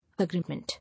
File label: "Agreement", English